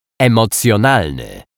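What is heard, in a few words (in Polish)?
"emocjonalny", Polish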